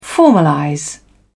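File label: "formalize", English, British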